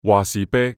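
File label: "話事啤", Cantonese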